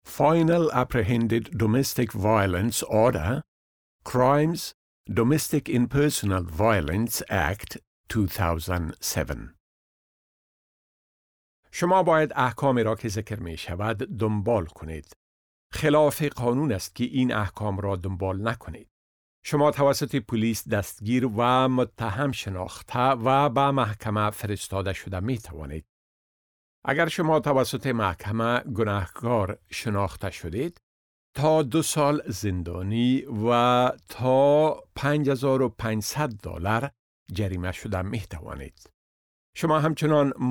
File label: introduction dari